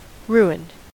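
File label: "ruined", American English; pronunciations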